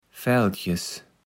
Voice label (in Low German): "Faeldches", kaevels platt